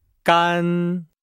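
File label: "gan", Chinese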